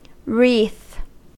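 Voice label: "wreath", US English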